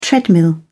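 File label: "treadmill", British English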